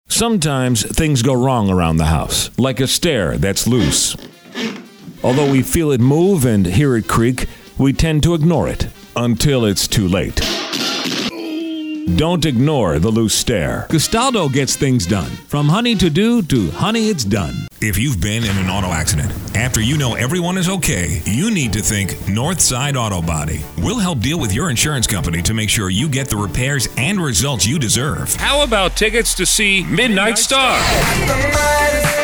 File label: Commercials